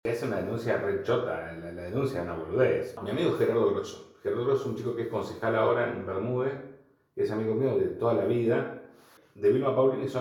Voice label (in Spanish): Solo audio, Escuchas; Escuchas: Aliado político y ex funcionario de Raimundo revela la maniobra del intendente para difamar a Arico